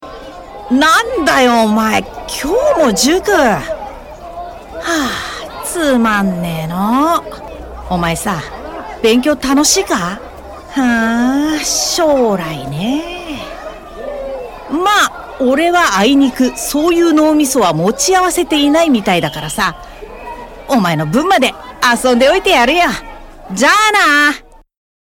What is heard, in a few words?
Japanese Native